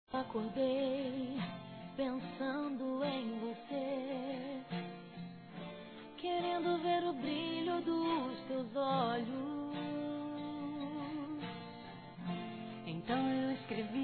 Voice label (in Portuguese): NATAL ROMANTICO, masc